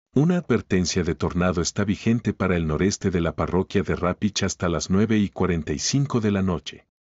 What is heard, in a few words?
spanish alert; emergency alerting system; AlertNow™